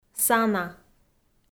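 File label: "сана", Komi, female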